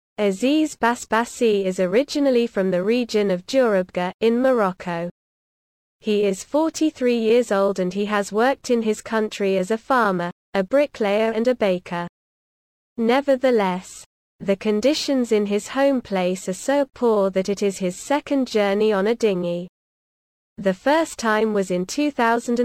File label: audio english